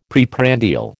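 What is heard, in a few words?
"preprandial", American English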